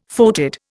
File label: "foetid", Indian